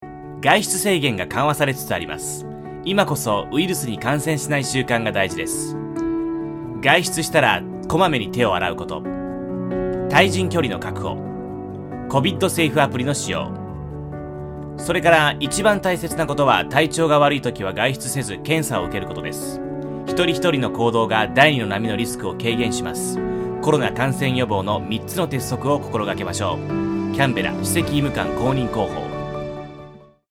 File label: japanese narration